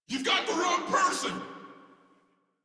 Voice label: Downed, en; Warframe; Corpus VIP A

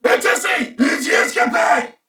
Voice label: Player Spotted, Corpus Venus Male; Dialog; Barks; Battle; Warframe; CorpusVenusMaleA

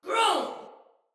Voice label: Attack Normal Soldier Female; Battle; Barks; Dialog; Sinecure Soldier Female B

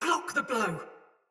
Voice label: Block Soldier Mace Agent Female; Battle; Sinecure Melee

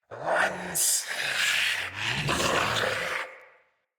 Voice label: Death Knight Male; Battle; Barks; Dialog; Mendicant

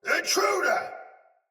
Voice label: See Player Shout Soldier Sword Agent Male; Battle; Sinecure Melee

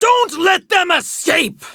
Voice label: Attack Prime Grineer Female; Tau; Battle Barks

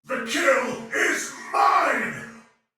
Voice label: Attack Heavy Soldier Male, Battle; Barks; Dialog

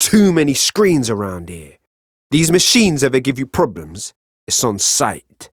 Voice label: Ambient Barks, en; Warframe; Mall Romance; Quincy

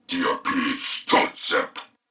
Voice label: Patrol Male, en; Dialog; Barks; Battle; Corpus Venus; Warframe; CorpusVenusMaleB

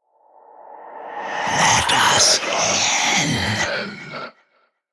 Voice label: Whispers

